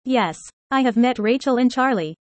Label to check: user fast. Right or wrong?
Right